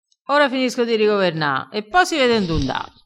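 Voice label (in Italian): "rigoverna", vocabolario dialettale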